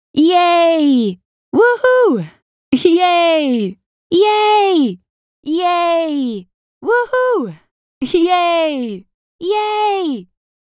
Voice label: Female Saying Yey And Wohoo